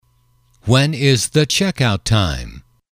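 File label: when is the checkout time, English, male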